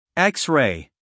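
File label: "X-ray", US English, male; sounds-of-american-english; everyone-can-use-english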